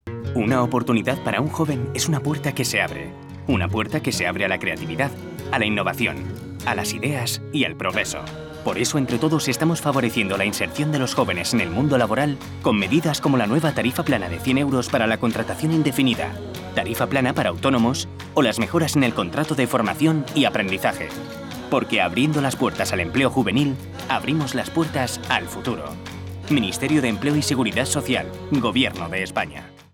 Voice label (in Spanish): campana radio; cuna radio; SEPE